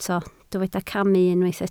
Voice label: "camu", Welsh